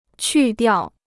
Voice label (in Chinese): "去掉", Chinese